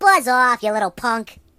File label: Leave me alone, Mobster; hedgewars